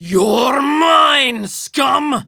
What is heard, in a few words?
Enemy Spotted Female; Warframe; Tau; Prime Grineer Female; Battle